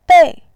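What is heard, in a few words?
"bei", Mandarin Chinese, tone 4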